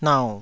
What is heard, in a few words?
"nau3", taiwanese